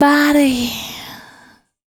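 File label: vocal female, Dance Vocals, spoken words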